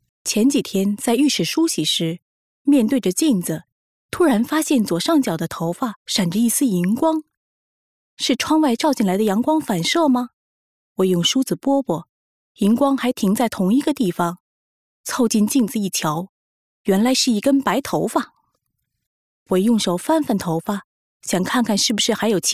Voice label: Chinese Mandarin; foreign languages